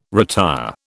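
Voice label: "retire", British English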